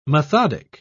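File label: "methodic", English, British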